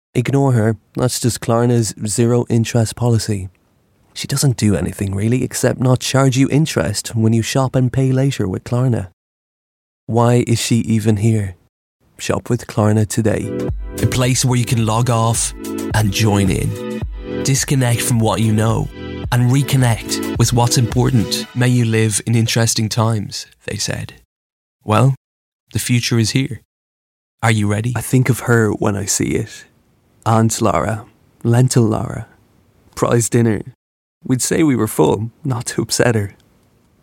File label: irish voiceover natural